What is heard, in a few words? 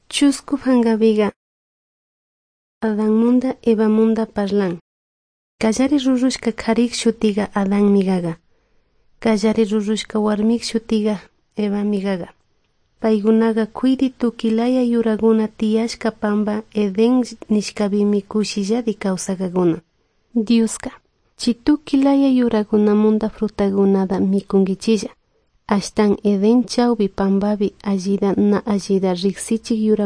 good news quichua de salasaca